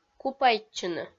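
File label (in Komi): "купайтчыны", Komi-Zyrian, female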